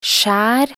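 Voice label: "skjær", Norwegian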